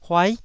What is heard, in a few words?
"huai9", Taiwanese syllable